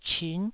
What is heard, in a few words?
"chin9", taiwanese